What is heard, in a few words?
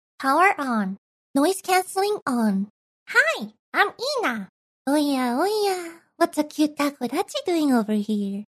ENG